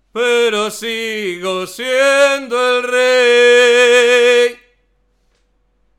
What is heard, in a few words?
Slime male